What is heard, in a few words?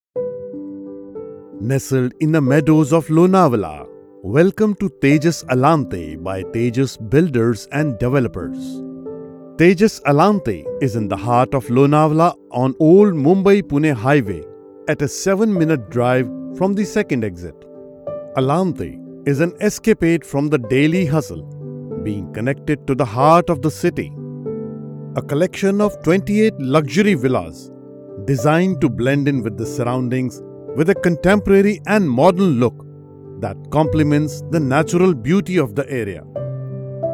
Corporate narration English; Alante